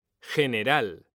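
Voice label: "general", Spanish, male; mekanta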